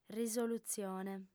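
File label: "risolutzione", Sardinian female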